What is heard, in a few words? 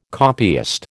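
"copyist", American English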